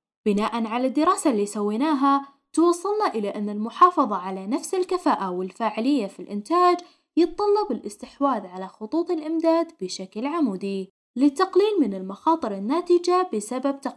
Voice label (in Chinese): 阿拉伯语女 干音